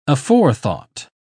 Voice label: "aforethought", uk